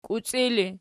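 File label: "kiuci" ergative, Archi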